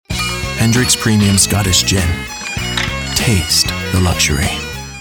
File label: Luxury Sexy; Hendricks